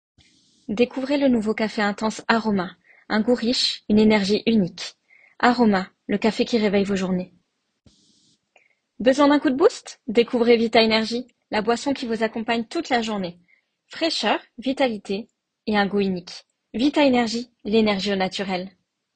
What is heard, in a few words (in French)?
Exemples pubs